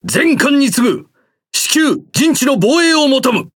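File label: Speech Quick Commands Ally Base, Japanese; World Of Warships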